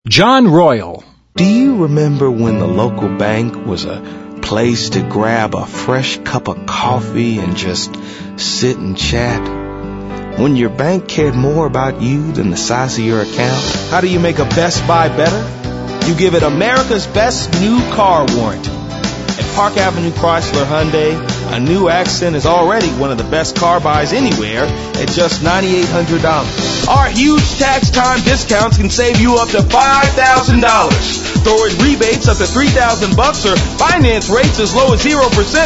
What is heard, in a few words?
male; Spotland Productions